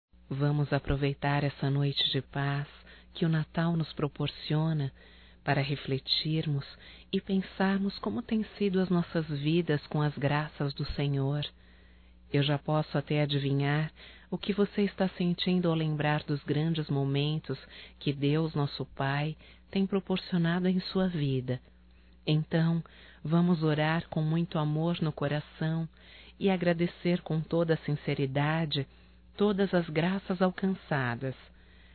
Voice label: Neutro Feminino; Telemensagens 2017; Natal & Ano Novo

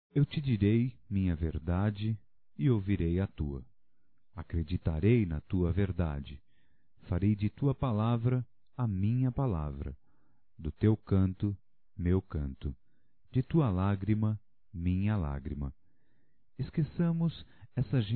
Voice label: Mensagem para Sempre Masculino, Só Voz; Telemensagens; Telemensagens 2017

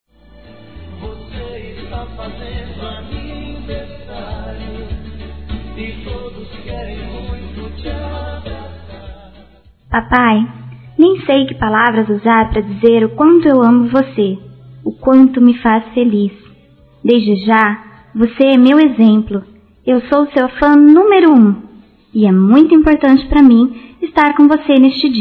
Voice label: Aniv. Pai Feminino, Telemensagens; Aniversário Infantil

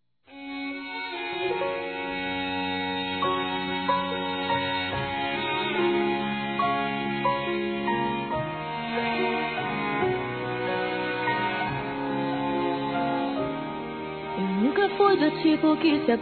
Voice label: Obrigado Por Ontem Masculino; Telemensagens; Convites e Agradecimentos; Telemensagens 2017

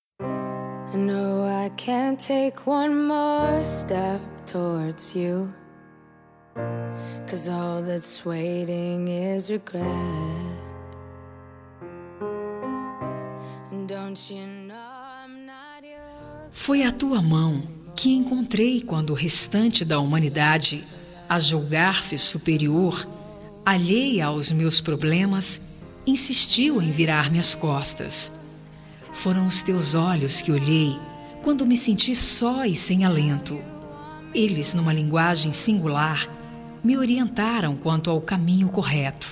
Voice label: AMIZADE GERAL, Fem

